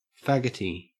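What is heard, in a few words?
"faggoty", Australian English; pronunciations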